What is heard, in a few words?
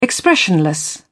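"expressionless", British English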